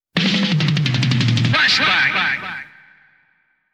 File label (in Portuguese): Radio Difusora Chamada Flashback